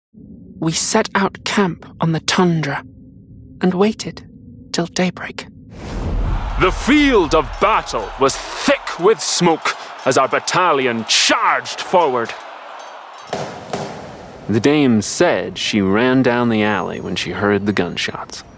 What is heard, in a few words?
ACCENT